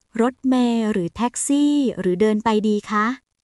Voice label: Thai, basic thai phrases